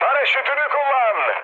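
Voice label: Turkish bailout, mood med, speech; War Thunder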